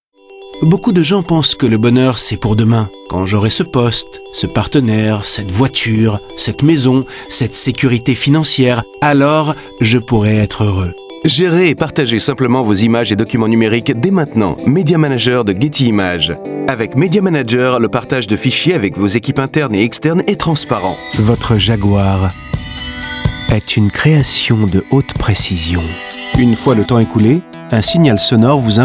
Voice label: french corporate